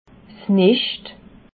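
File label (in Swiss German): "nascht", Alsatian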